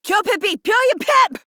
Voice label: Player Spotted, Female; en; Modular Corpus; Battle Barks

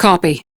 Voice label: Crew Accept, Female; Warframe; Railjack; Steel Meridian

